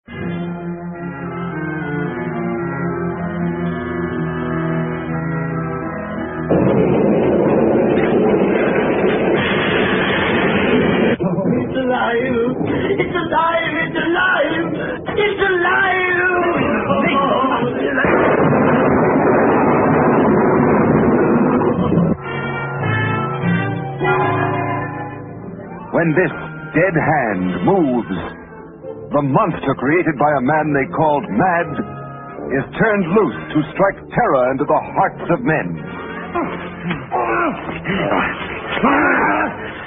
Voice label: commercials; frankenstein